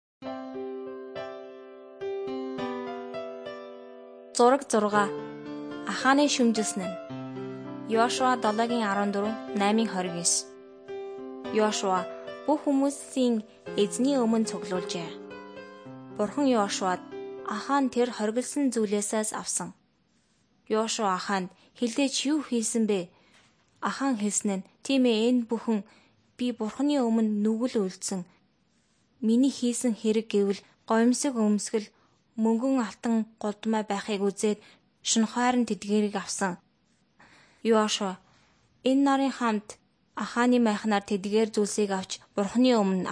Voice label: mongolian